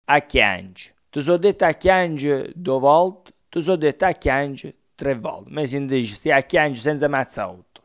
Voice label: piangere, coratino

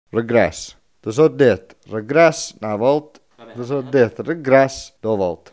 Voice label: "grasso", coratino; CRASSU

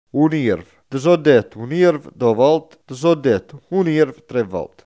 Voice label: "nervo", coratino; NĔRVU

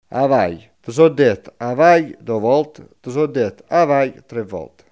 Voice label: "avere", coratino; HABĒRE

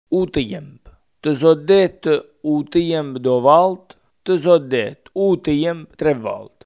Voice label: tempo, coratino

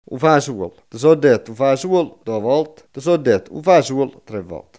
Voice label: fagiolo, coratino

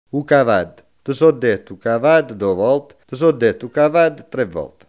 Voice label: "cavallo", coratino; CABALLU; Archivio di parlato dialettale del progetto Galileo G14-126

